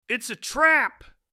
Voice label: its a trap, Old Cowboy Narrator